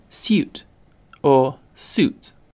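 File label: "suit", British English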